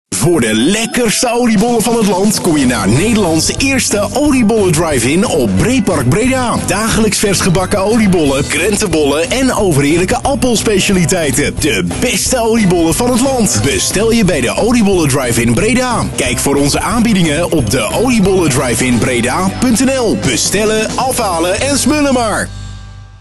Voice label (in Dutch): Radiospot; Oliebollen Drive-in Breda